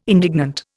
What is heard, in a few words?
"indignant", Indian